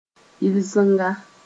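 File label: "yɩlsenga", Mossi; clear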